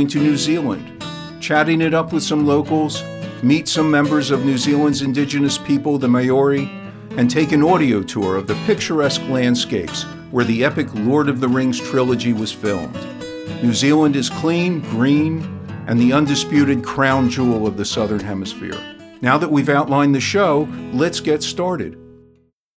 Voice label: PodCast